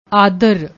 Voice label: "aadar", Punjabi; ਆਦਰ